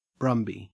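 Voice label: "brumby", Australian English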